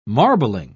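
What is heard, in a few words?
"marbling", English, British English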